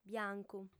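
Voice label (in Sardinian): "biancu", female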